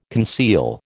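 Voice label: "conceal", American English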